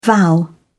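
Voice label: "vow", British English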